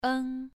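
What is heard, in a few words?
"eng" Mandarin, second tone, pronunciation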